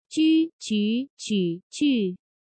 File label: ju 鞠 菊 举 句, Chinese Pinyin